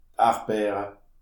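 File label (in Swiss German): "erdbeer", Alsatian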